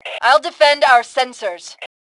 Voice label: Self Defend Sensors, Female; T2 Female 03

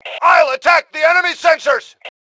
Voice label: Self Attack Sensors, Male; T2 Male 05

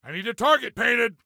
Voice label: Need, Soldier Male

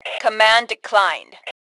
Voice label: Command Declined, Female; T2 Female 01

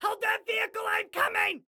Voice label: Need Hold Vehicle, Excited Guy

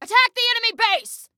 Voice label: Attack Base, Female

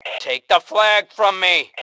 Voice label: Flag Take, Male; T2 Male 03

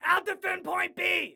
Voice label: ILL DEFEND, Excited Guy

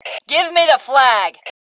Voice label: Flag Give Me, Female; T2 Female 03

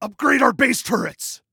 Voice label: Upgrade Turret, Male

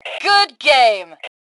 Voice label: Compliment Good Game, Female; T2 Female 03

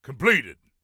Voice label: Command Completed, Soldier Male; Soldier Male 03

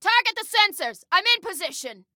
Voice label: Target Sensors, Female

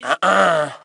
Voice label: Team No, Female; T2 Female 04

